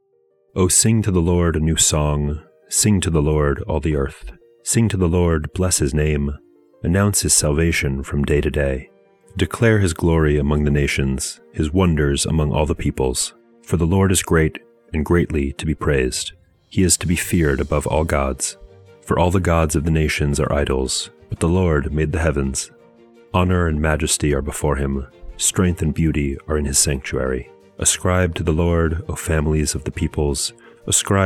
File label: poetry and prayer psalm english